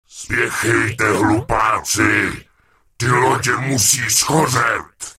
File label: Night Elf Male Help; wowpreklad; czwow; NightElfVocalMale